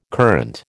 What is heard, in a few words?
"courante", American